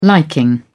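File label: "liking", British English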